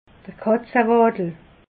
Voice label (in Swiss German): "katzewaddel", alsace